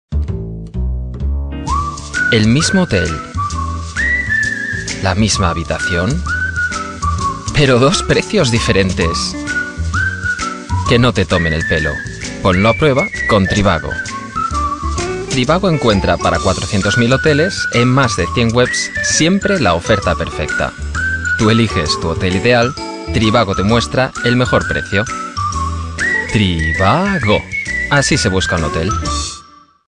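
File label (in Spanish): speaker search spa werbung, Spanish, advert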